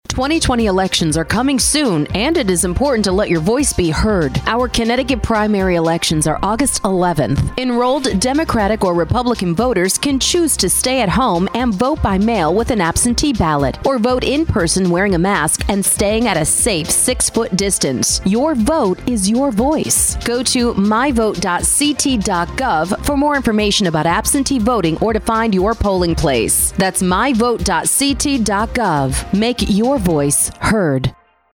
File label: radio ad; voter education